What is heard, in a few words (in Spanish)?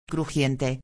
"crujiente", espanhol; palavra do dia